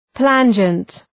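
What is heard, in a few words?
"plangent", English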